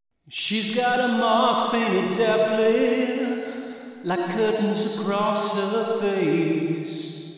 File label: vox rev